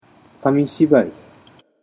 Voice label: "kamishibai", Japanese